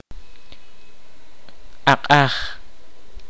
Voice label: "lengua", Kaqchikel

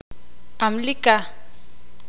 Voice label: "cielo", ixil

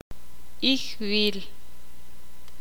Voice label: "lazo", ixil

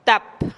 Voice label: "tap" cuatro, teotitlan

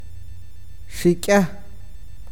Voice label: ala, awakateko

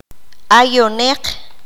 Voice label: "nosotros inclusivo", Q'anjob'al

